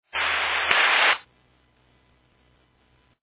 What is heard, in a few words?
Fire Dispatch